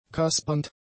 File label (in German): "kaspernd", German; adjectives